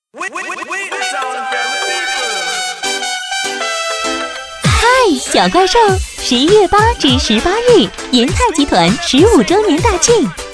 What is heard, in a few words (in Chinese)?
广告 风格可爱; 银泰